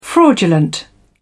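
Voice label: "fraudulent", British English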